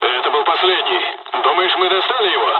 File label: dialog, dialogs russian; us midway; War Thunder